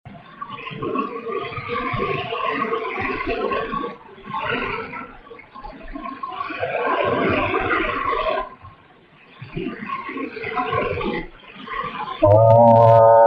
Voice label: Voice breaking through AHHH